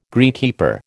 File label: "greenkeeper", American